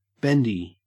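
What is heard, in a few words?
"bendy", Australian English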